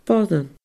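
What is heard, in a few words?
"boireann", Scottish Gaelic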